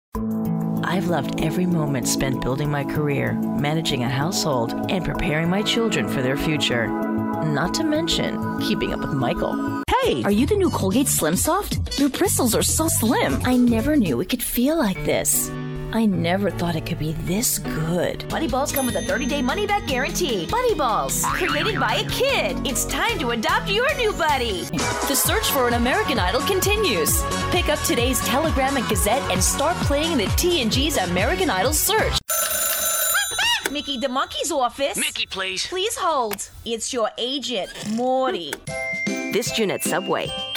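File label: Commercial Female, conversational, friendly, upbeat; TV and Radio, eLearning, Narration, Corporate, Documentary, Radio Imaging, Phone Hold/IVR; Commercial Voiceover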